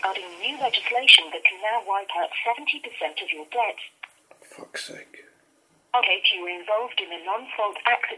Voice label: checking answer phone